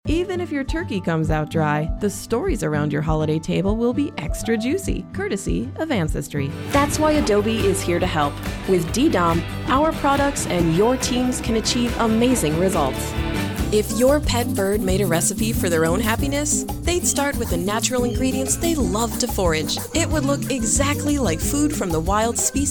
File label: Commercial